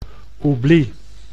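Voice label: "oublie", Dutch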